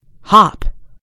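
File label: "hop", American English; gcompris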